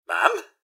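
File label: hello, robot; rivetcity; mrhandy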